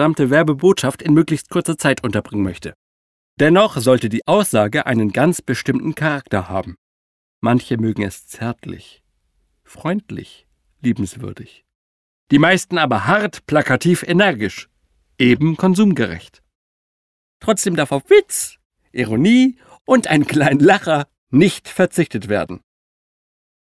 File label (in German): werbung